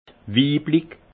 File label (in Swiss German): "weiblich", alsace; vin; féminin